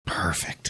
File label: whispering "perfect"